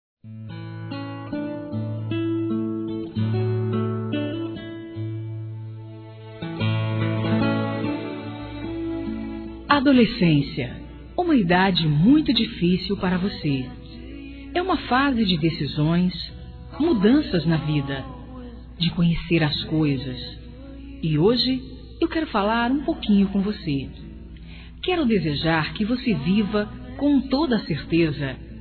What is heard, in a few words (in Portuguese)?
aniversário adolescente f; Aniversários; Aniversários diversos; fem